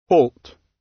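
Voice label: "halt" British English; Time, history; Thời gian, lịch sử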